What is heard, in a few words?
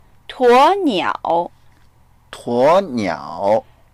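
"tuo2niao3", Mandarin Chinese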